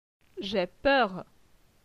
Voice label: "jai peur", francais

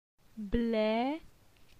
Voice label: "Ble", phonetique; la syllabe e

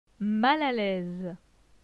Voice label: "mal a laise", francais

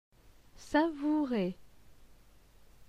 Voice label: "savourer", francais

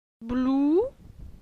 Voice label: "Blou", phonetique; la syllabe ou

